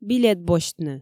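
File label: "билет босьтны" Komi, female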